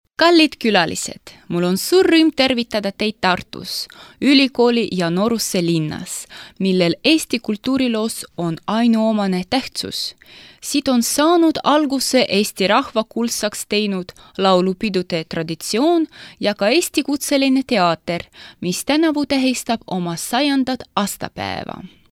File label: ESTONIAN Female